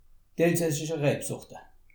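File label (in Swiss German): "rabsorte", Alsatian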